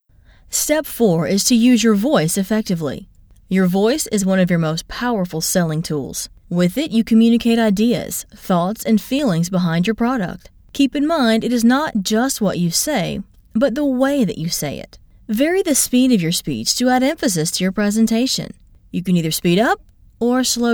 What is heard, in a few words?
E-Learning